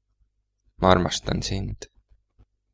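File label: i love you, male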